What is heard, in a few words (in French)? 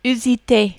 "usite", French